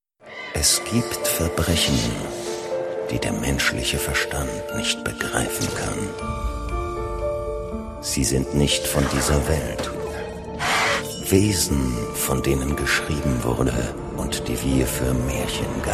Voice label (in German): Horrortrailer; Puls4; Grimm